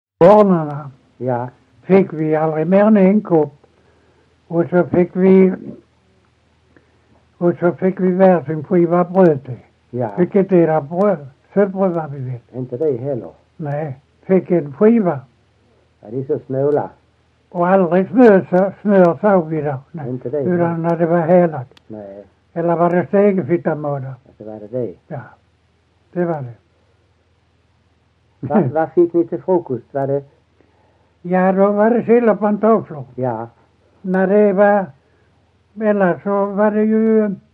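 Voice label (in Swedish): Ekeby Skåne, dialekter, svenska sagor; Folkminnen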